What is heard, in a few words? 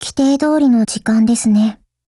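HELLO, Japanese; GF Alarm; Ameli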